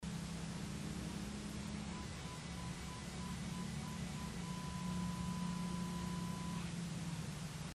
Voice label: walkie talkies what is that whisper childrens area; Gardner's Used Books & Music; Tulsa, Oklahoma